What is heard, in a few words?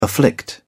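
"afflict", English, uk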